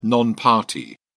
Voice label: "non party", en uk